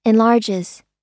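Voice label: "enlarges", American English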